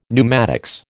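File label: "pneumatics", American English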